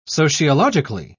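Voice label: "sociologically", British English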